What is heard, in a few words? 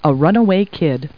people, kid